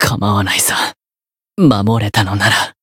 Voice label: win barely, jp; dunarith

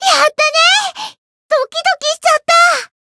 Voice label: win, jp; mana

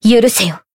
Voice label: finish, jp; eva

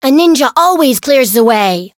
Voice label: Voice variable chant

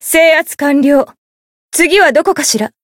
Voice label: win, jp

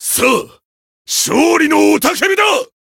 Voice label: win jp

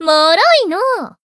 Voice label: finish, jp; tiramisu

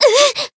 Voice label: Voice damaged jp; sophia

